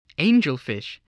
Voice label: "angelfish", spelling